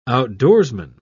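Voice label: "outdoorsman", British English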